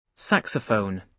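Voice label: "saxophone", British English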